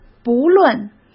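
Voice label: "不论", Mandarin Chinese; chinese flashcards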